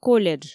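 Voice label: "колледж", Komi, female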